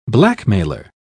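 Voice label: "blackmailer", British English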